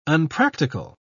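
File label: "unpractical", British English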